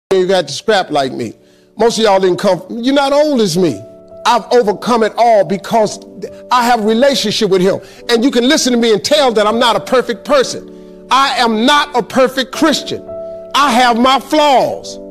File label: Motivational Powerful Speech; Don't Go for SHORTCUTS by Steve Harvey